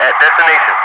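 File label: "at destination", English speech, Wingman; DCS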